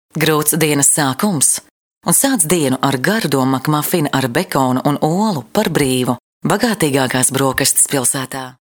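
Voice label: Latvian, female, commercial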